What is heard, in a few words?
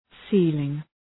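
"ceiling", English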